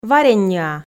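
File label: "варенньӧа", Komi, female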